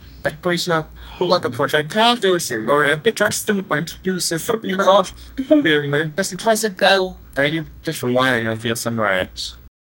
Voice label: narration is dry cl

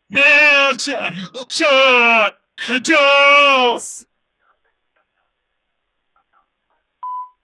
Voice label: distressed man calling ou, distress

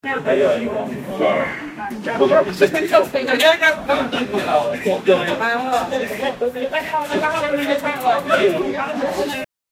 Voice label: a man and a woman, argument

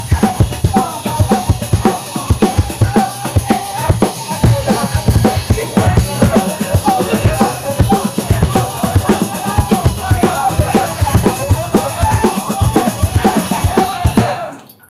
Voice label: narration

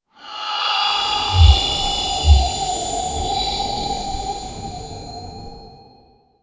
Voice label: dark demonic whisper

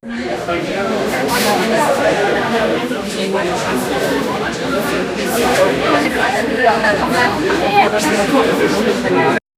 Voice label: people whispering about a, courtroom

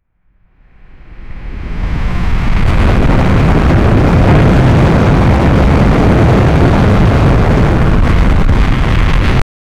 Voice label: deep serious male voice; dialogue

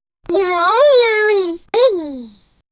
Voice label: cute anime girl says nice

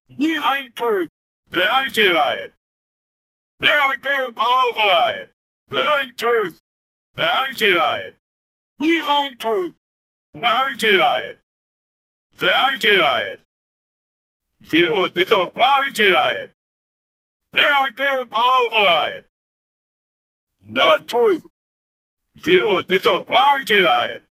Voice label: voice over voiceover who, football

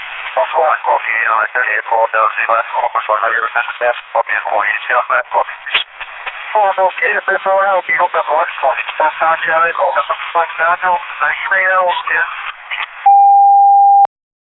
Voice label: radio chatter police; communication

